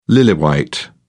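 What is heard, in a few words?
"lily-white", British English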